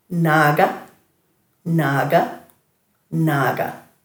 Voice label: "naaga", Dhurga